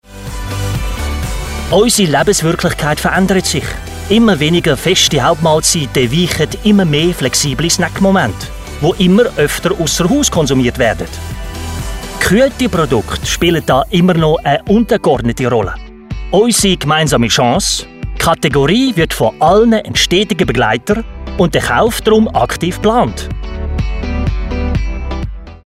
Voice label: voiceover; danone